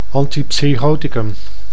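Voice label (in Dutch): "anti-psychoticum", Dutch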